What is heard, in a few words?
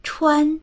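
"chuan1", Mandarin Chinese; 穿; （衣服を）着る、通り抜ける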